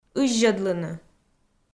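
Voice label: "ыззьӧдлыны", Komi, female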